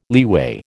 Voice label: "leeway", American

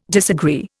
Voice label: "disagree", Indian

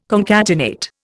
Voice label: "concatenate", Indian

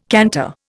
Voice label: "canter", Indian

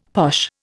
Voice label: "posh", Indian; Dictionary

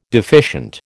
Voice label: "deficient", American

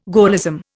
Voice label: "Gaullism", Indian

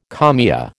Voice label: "kalmia", American

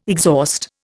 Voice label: "exhaust", Indian

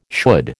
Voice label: "should", American

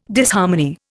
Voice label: "Disharmony", Indian

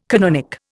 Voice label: "canonic", Indian

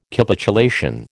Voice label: "Capitulation", American

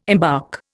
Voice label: "embark", Indian

